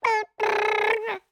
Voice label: babble, birthday; CosmicRage